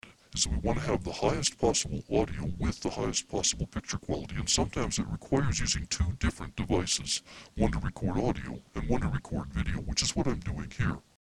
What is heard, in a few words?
Alien; Final Cut Pro